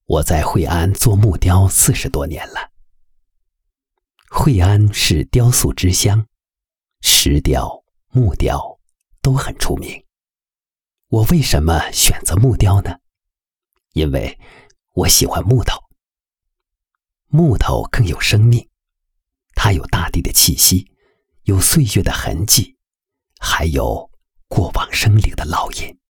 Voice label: 男; 木雕

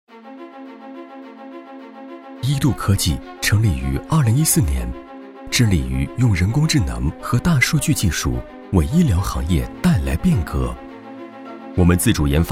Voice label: 男 宣传片 磁性 科技; 医渡科技

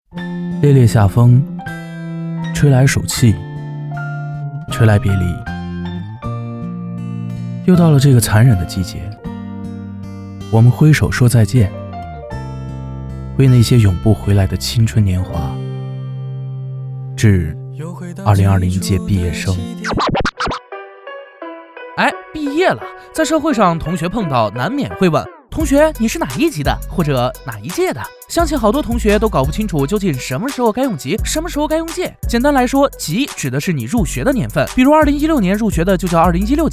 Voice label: 男 MG和独白; 级和届

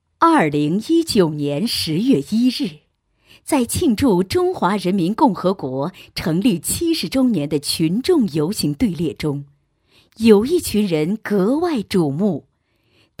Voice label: 女 国庆配音; 快递小哥

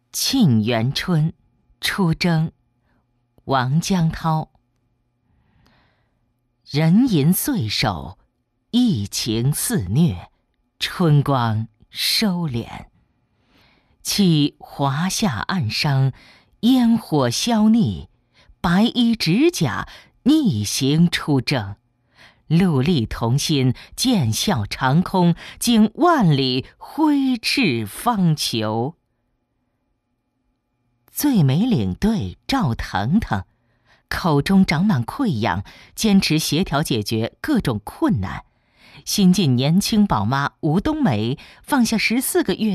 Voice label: 女 抗疫诗歌

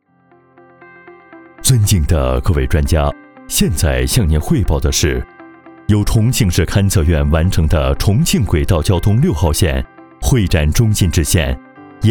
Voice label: 男, 课件, 工程汇报

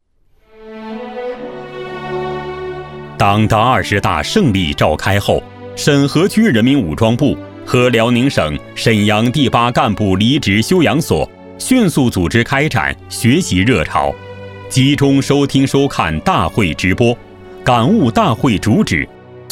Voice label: 男 专题党建; 沈河区武装部学习二十大精神

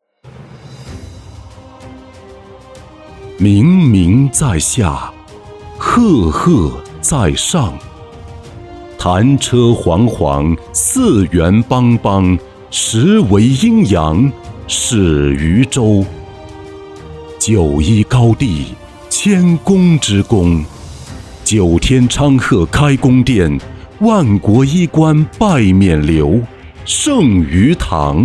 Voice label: 男 地产 浑厚大气; 圣远和园

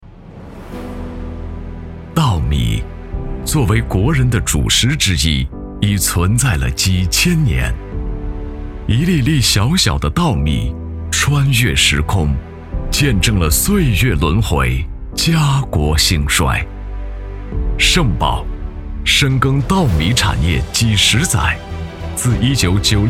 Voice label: 男 专题; 盛宝

